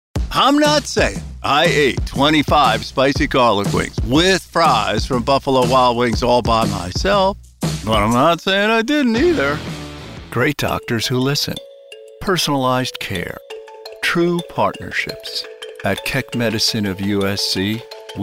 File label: commercial